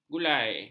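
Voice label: "gulai", Malay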